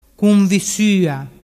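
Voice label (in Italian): "cunvissua", Vernazzese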